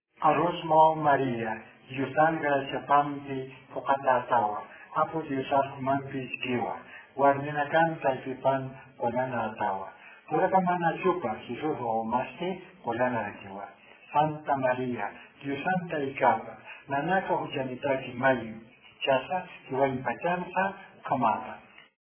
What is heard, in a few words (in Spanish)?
ave maria aymara normal